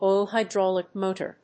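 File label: "oil-hydraulic motor", English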